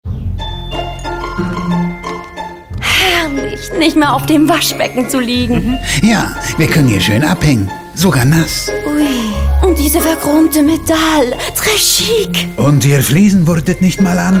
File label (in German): Tesa Bad Accessoires alle Frauenstimmen Deutsch